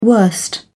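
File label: "worst", British English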